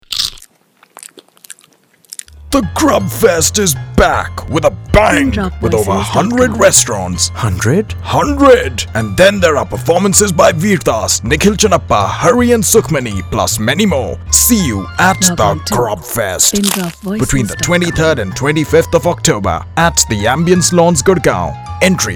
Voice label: English